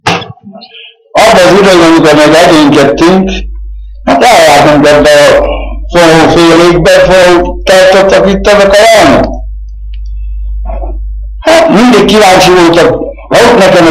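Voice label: dialect collection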